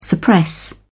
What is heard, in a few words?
"suppress", en uk